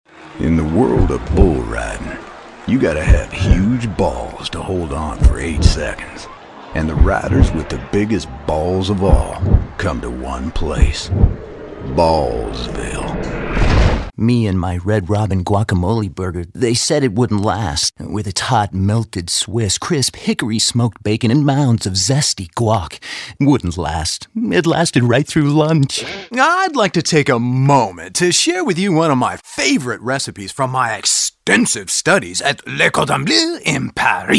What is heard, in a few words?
character